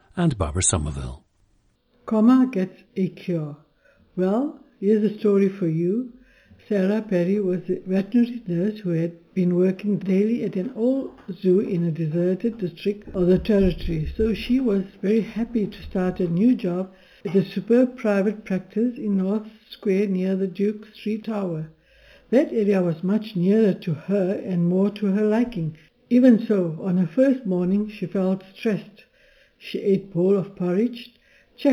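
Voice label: south africa, dialects of English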